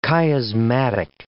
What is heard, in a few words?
"chiasmatic", English